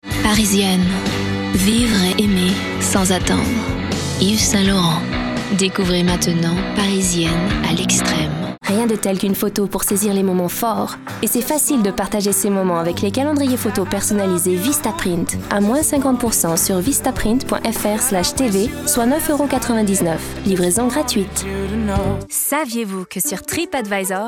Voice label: French VO